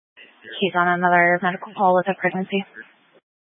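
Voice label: Fire Dispatch, scanner audio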